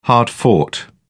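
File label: "hard-fought", British English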